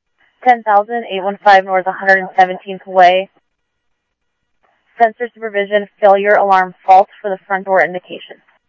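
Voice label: Scottsdale police department, Scottsdale Police, scanner; SCTSDLE PD - PD2; ThompsonPeakHigh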